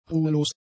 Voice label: "ruhelos", German; adjectives